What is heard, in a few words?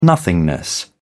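"nothingness", British English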